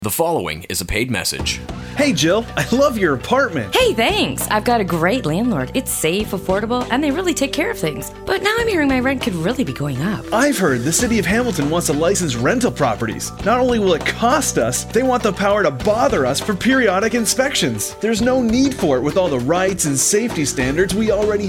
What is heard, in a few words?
radio commercial; winkproperties